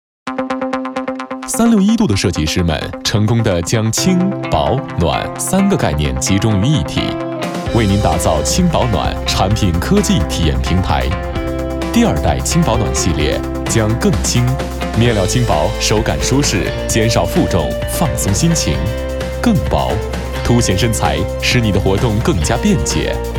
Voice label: 男国 专题 产品 科技; 361°产品设计

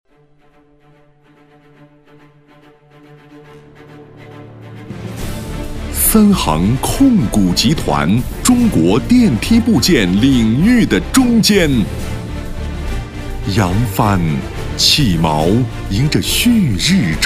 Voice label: 男国 专题 企业 沉稳; 电梯控股集团

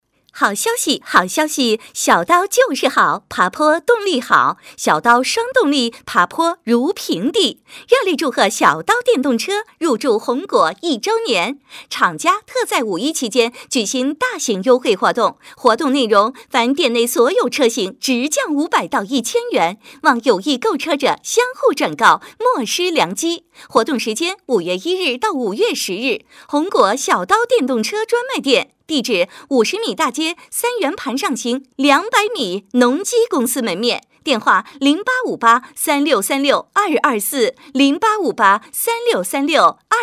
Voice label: 广告 促销 电动车 甜美, 女国; 小刀电动车

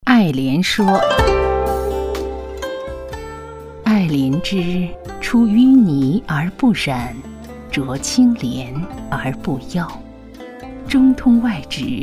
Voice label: 培训课件 爱莲说课件

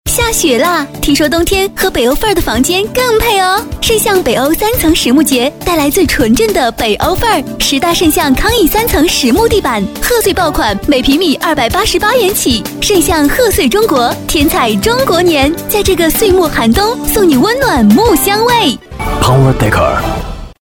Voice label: 广告 促销 欢快; 圣象北欧三层实木节

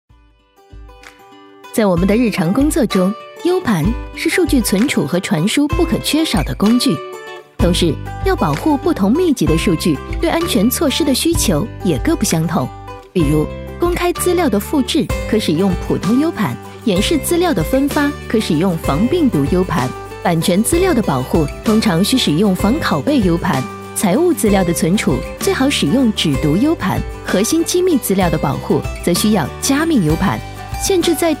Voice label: 女 多媒体 培训课件; 云安U盾

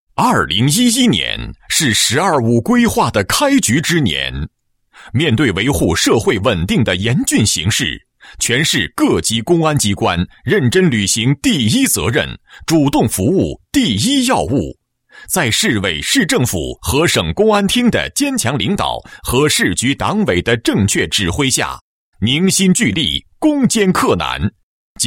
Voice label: 男国 专题 政府 公安; 吕梁公安

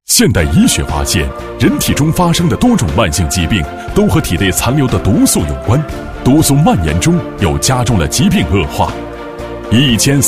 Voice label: 广告, 医院医药, 浑厚; 正气堂

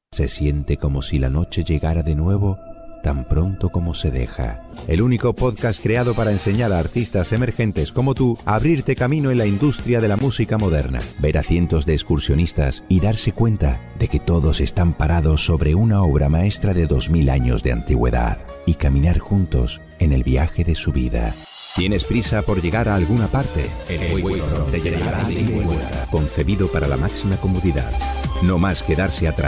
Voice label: 西班牙语男 沉稳, 外语; 小语种

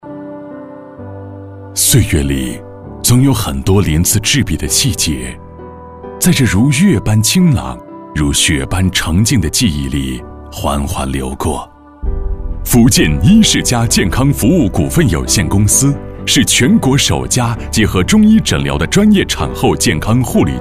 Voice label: 舒缓; 医院; 医世家

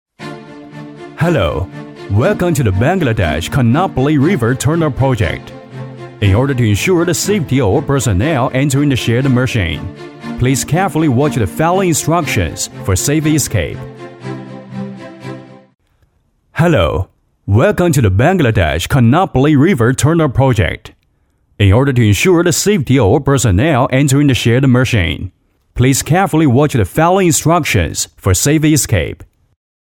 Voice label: 男英 多媒体 广播提示 欢迎提示盾构安全 大气; 英语

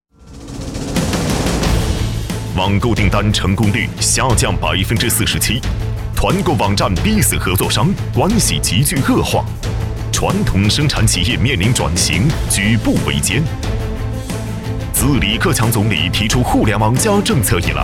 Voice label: 男国 广告 电购 网购

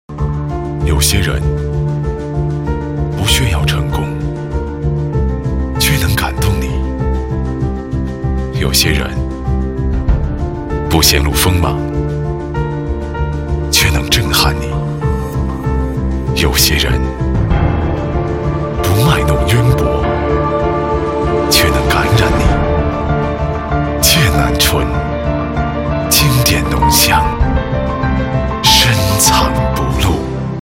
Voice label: 男 广告 浑厚; 酒类; 剑南春-深藏不露篇

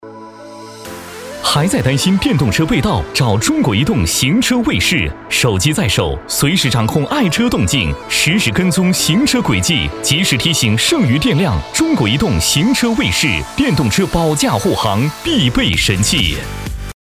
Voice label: 男国 广告 手机通信; 中国移动行车卫士

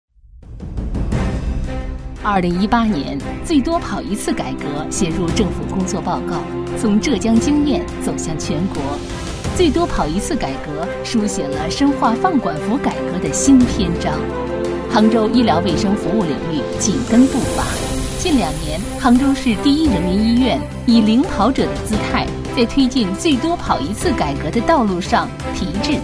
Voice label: 女 专题 医院 稳重; 杭州市第一人民医院

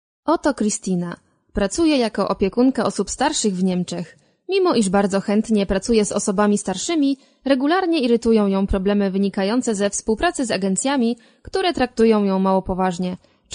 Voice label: 波兰语女, 外语

